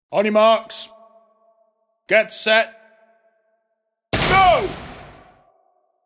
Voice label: Race Start, Male announcer saying ‘On your marks, get set..GO’ with a starting pistol; Male announcer saying ‘On your marks, get set..GO’ with a starting pistol bang; PA Announcements; Pa Announcement Sound Effects